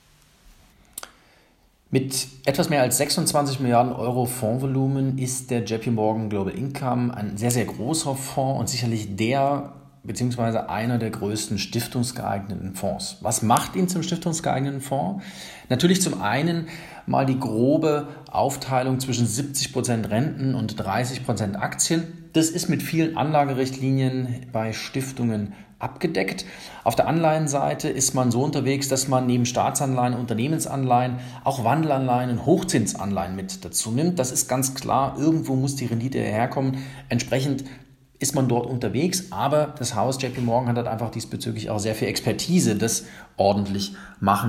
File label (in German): Audio Kommentar; JPMorgan Global Income; fondsfibel 2019 midyear update